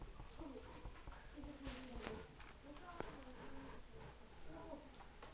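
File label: "puppy", spoken word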